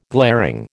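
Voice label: "glaring", American English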